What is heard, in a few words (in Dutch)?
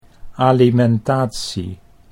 "alimentatie", Dutch